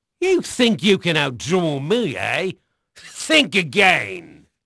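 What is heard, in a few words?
English male; Pirates Of The Caribbean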